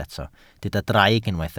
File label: "draig", Welsh